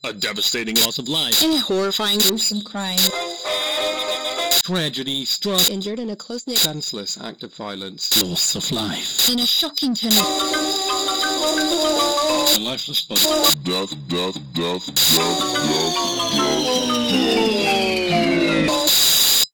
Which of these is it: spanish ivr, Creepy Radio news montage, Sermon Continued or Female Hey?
Creepy Radio news montage